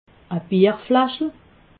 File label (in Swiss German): "bierflaschel", alsace